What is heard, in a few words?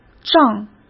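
"胀", Mandarin Chinese; chinese-flashcards; hsk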